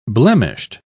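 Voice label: "blemished", British English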